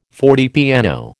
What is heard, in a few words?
"fortepiano", American